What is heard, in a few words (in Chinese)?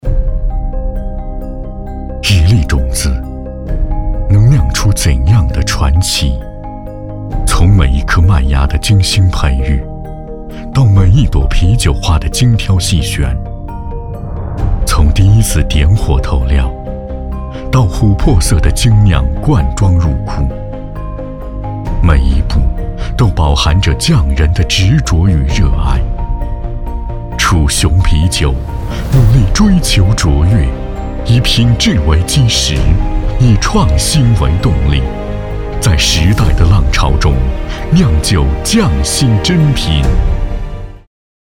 男 酒类宣传; 时光窖藏